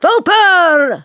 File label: Gaelic Female villager; age2